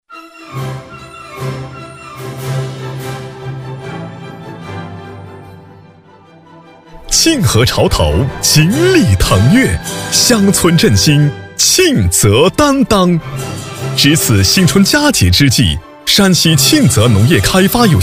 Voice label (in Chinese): 喜庆感; 喜庆感沁河潮头锦鲤腾跃